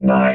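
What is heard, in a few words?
"nine", robot voice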